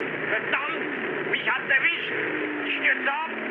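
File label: German aircraft destroyed mood med, speech; War Thunder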